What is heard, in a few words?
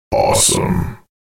awesome, announcer